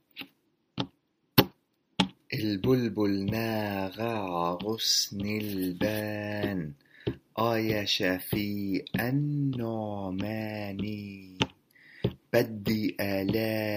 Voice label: diction bars; Songs of the East